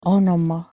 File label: "onoma", Modern Greek